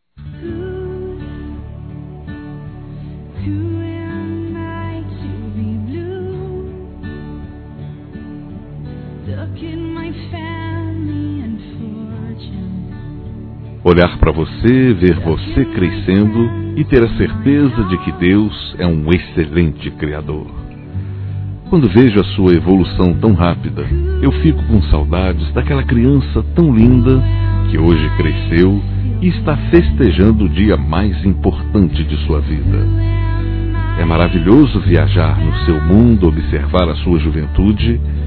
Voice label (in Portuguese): Sobrinho (a) Adolescente Masculino; Telemensagens 2017; Aniversários; Aniversário Infantil